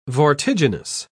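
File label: "vortiginous", British English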